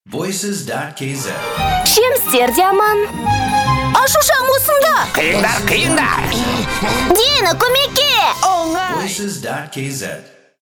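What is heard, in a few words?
Kazakh parody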